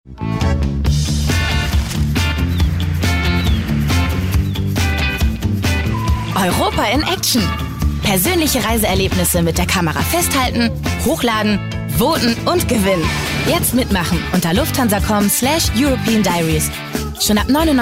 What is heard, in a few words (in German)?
Werbung Dynamisch